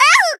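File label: Voice damaged, Japanese; suzette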